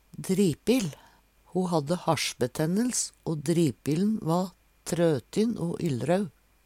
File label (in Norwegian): "dripil", Numedalsmål